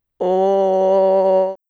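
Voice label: "お", Japanese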